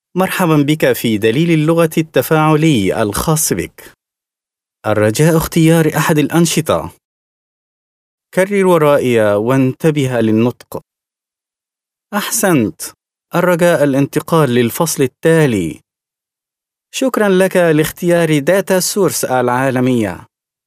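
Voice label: Arabic male corporate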